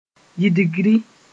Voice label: "yidgri", mos-en; development

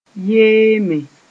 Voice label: "yeeme", Mooré

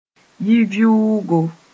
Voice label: "yi-vɩʋʋgo", mos-en; uninhabited house

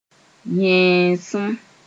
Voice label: "yẽesem", Mooré

